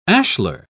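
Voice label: "ashlar", English, British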